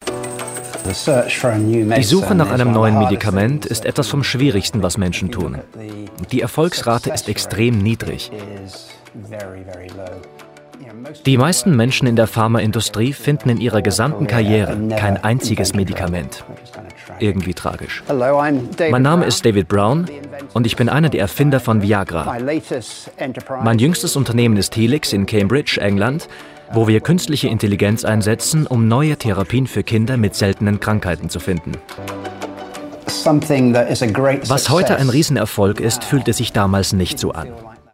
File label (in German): Doku serioes; Doku On; Blaues Wunder